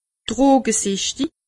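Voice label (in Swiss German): "drogesichtig", Bas-Rhin; medecine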